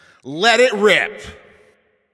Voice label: Game Start, Announcer